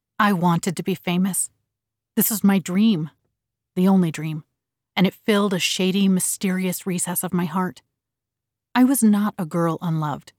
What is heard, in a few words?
Natural voice Fiction